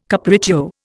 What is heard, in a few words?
"capriccio", Indian